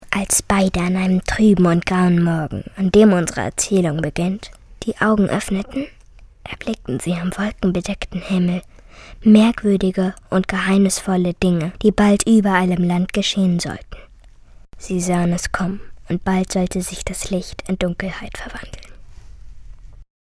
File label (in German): maedchen; Licht in Dunkelheit